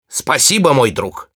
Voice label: thanks for the teleporter, ru